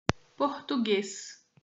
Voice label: "Português", portuguese